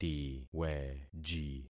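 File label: "tiweji", concatenated syllables; Affixing experiment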